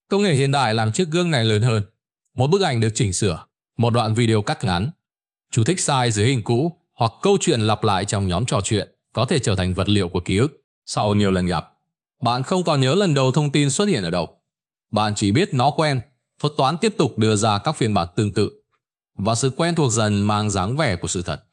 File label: narration; Vi Sao Nao Bo Khien Ban Nho Nhung Dieu Chua Tung Xay Ra; StickerMan